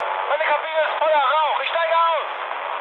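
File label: German on fire mood med, speech; War Thunder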